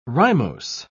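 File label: "rimose", British English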